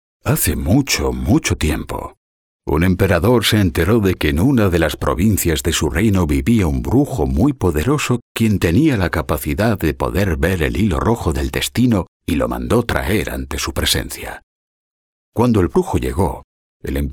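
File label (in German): Spanish, Kommentar Dynamisch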